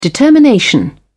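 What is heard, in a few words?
"determination", British English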